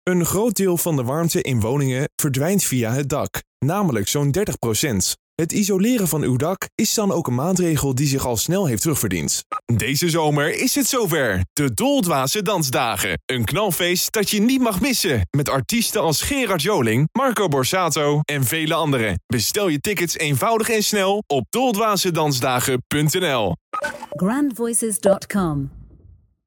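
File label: Dutch male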